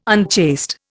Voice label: "unchaste", Indian